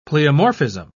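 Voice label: "pleomorphism", British English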